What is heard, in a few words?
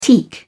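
"teak", British English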